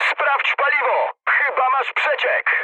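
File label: tank damaged, mood high, Polish speech; War Thunder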